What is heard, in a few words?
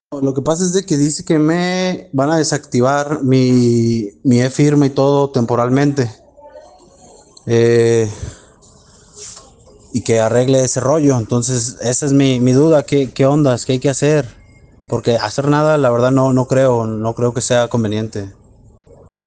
whatsapp ptt